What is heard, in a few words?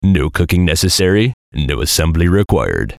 VOICE COMICAL; Radio Voice -No Cooking; Funny Sound